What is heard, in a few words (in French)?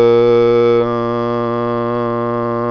"oen", voyelles nasal